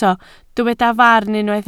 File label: "farn", Welsh